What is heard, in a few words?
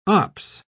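"ops", British English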